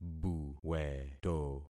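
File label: "buweto", concatenated syllables; Affixing experiment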